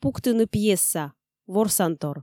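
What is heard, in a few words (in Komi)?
пуктыны пьеса ворсантор, Komi, female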